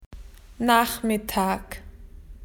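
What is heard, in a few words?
"afternoon", Australian English; PictureDictionary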